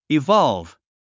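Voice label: "evolve", English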